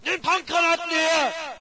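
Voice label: German use grenades; video games; player; source engine; dod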